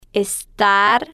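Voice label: "estar", Spanish, female, slow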